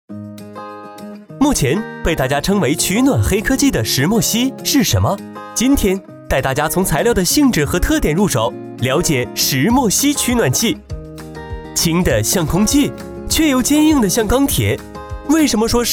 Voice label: 解说稳重; 石墨烯取暖器

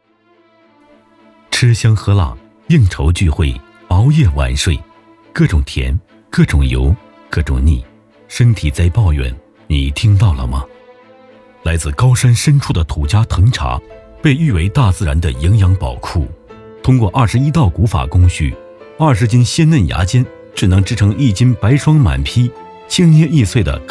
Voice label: 广告配音藤茶

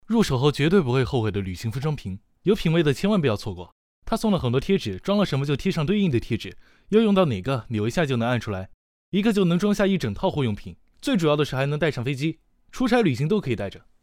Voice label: 商品介绍短视频自然

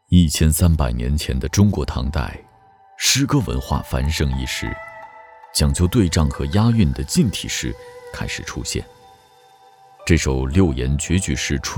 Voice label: 纪录片

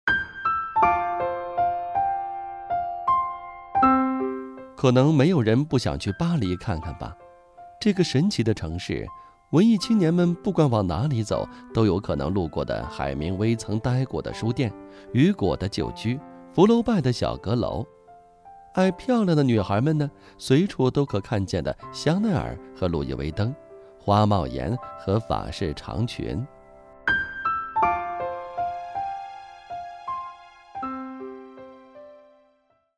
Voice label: 日常讲述风格配乐版

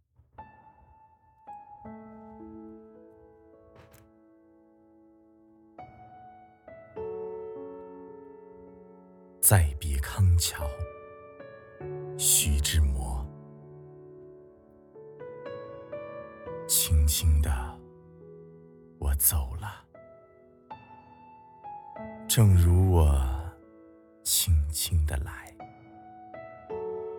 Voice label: 朗诵; 再别康桥

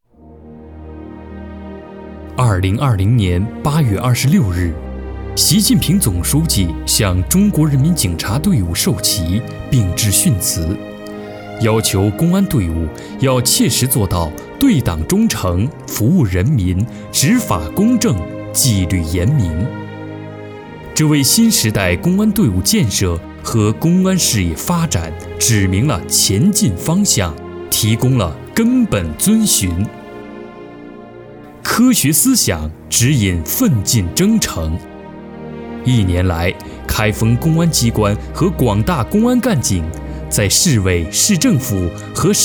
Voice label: 公安宣传片

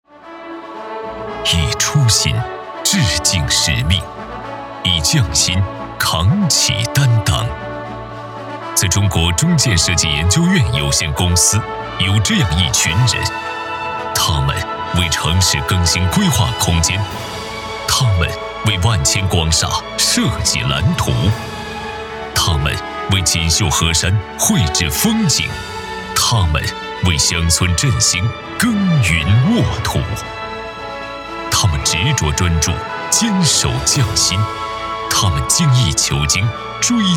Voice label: 汇报类; 首届大国工匠颁奖礼

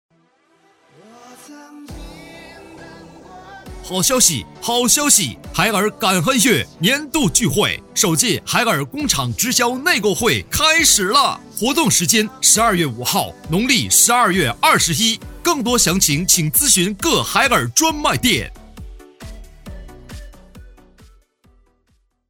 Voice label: 促销

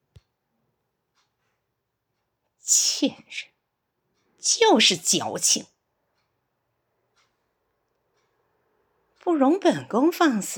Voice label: 角色配音; 华妃

